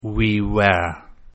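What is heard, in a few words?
"we were", English; Contractions Pronunciation Practice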